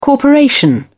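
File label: "corporation", British English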